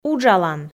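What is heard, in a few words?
"уджалан", Komi, female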